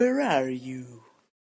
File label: where are you sound effect english